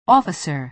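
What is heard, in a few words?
"officer", American English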